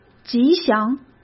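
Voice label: "吉祥", Mandarin Chinese; chinese-flashcards; hsk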